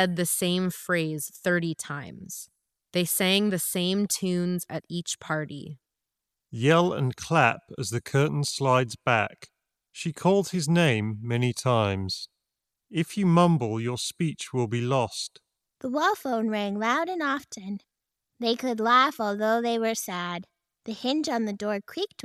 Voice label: dongle Reverb microphone sample; Razor Blackshark V2 Pro